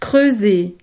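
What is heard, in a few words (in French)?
"creuser", French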